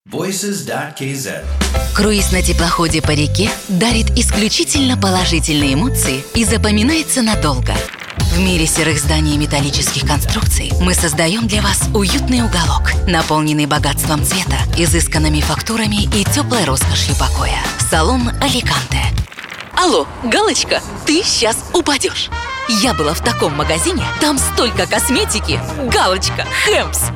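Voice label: Russian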